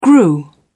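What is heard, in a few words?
"grew", British English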